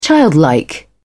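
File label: "childlike", British English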